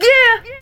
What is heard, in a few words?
"yeah" boy, voice